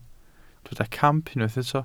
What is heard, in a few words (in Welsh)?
"camp", Welsh